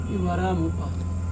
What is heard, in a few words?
"ivaramupa", finger, Serewen